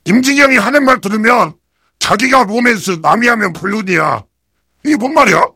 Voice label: heavy jeers